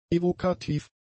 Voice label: "evokativ", German; adjectives